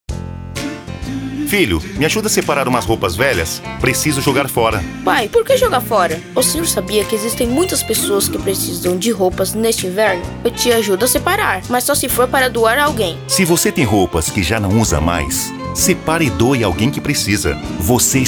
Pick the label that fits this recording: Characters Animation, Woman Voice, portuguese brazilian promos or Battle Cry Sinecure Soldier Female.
portuguese brazilian promos